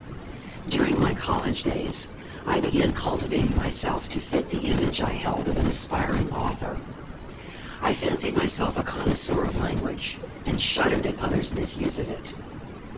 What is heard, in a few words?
male noisy